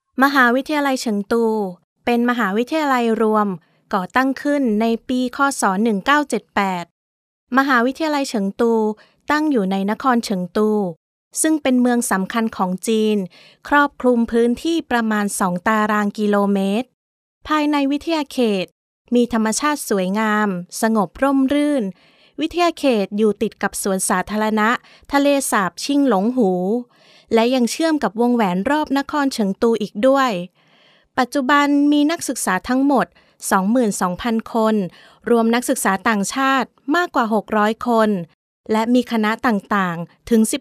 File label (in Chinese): 泰语女, 外语, 宣传片; 小语种